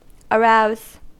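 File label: "arouse", American English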